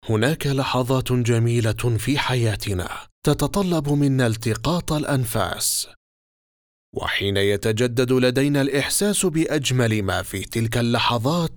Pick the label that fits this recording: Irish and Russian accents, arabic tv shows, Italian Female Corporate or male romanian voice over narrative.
arabic tv shows